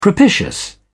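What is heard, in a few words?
"propitious", British English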